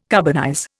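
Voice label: "carbonize", Indian English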